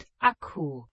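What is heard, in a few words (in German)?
"Akku", German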